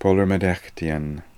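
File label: "Polormedekhtian", pronunciations; Fourth Way; Beelzebub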